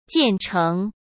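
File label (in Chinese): "建成", Mandarin Chinese; hsk; chinese-flashcards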